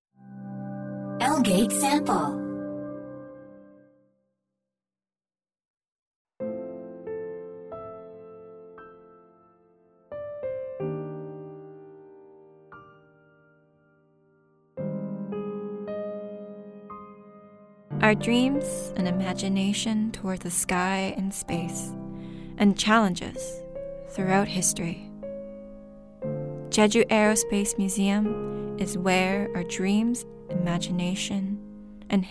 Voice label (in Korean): 영어 여 기본톤